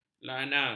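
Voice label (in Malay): "lanar", Malay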